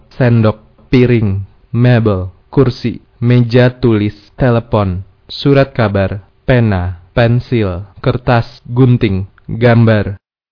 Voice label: indonesian objects

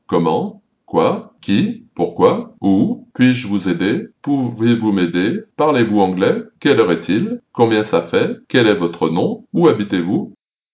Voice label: french phrases

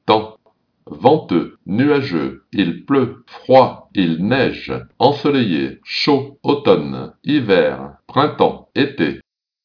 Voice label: french; weather

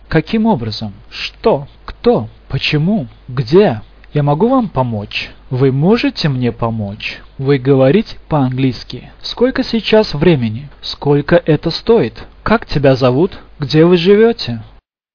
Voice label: russian questions

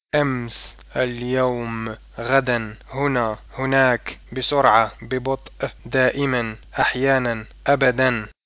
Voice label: arabic

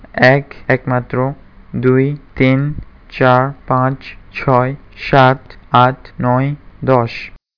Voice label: bengali; numbers

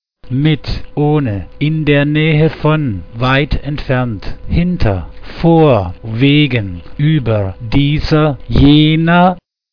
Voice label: german; words

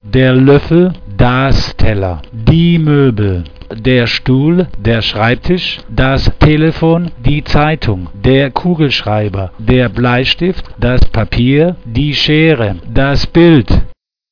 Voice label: german

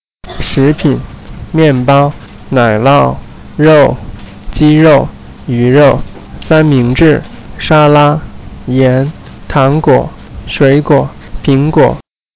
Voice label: chinese; food

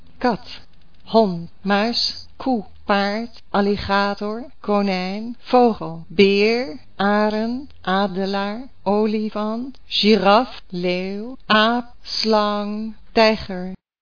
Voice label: dutch; animals

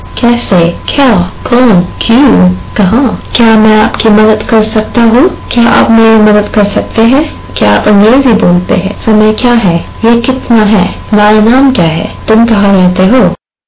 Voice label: hindi phrases

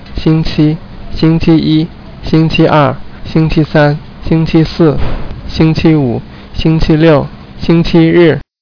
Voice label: chinese

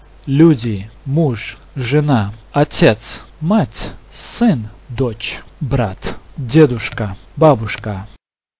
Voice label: russian people

